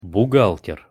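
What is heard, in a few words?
"buhgalter", Russian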